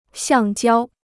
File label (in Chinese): "橡胶", Chinese